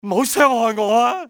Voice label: dont hurt, male; XBOX; civ